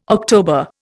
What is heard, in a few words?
"October", Indian